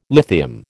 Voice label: "lithium", American